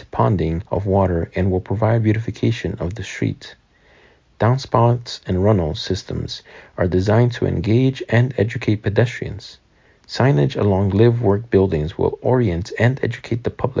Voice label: Stormwater — English; nkb stormwater